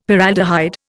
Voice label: "Paraldehyde", Indian; Dictionary